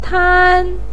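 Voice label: "tan1", Mandarin Chinese, pinyin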